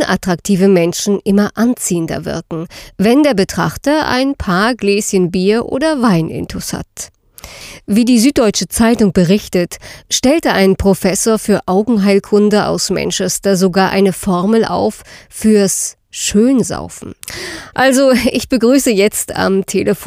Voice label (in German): Radio; SaufenStudie